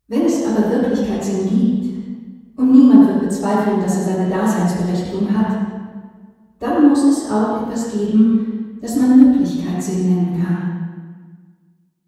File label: female; udk hardenbergstrasse